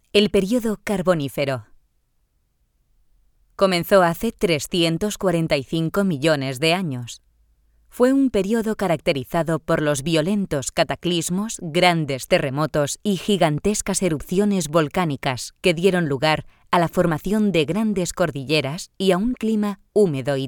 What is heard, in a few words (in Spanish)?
audioguia; audioguia museo de la mineria; esp